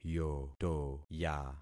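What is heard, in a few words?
"yotoya", concatenated syllables; Affixing experiment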